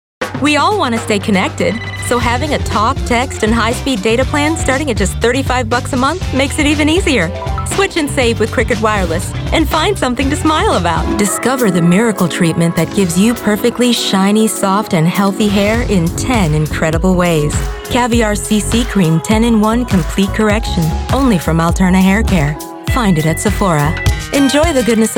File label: Commercial